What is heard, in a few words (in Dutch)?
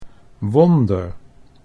"wonder", Dutch